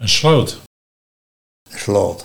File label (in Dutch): "Sloat", Nieuw lekkerlands dialect